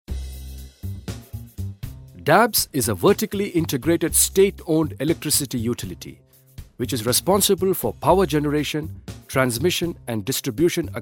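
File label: Corporate English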